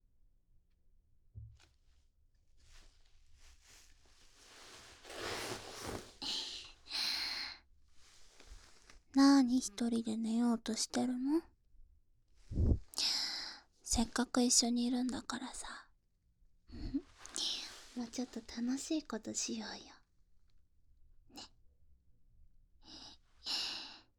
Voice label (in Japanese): ささやき 相互 オナニー バイノーラル, ASMR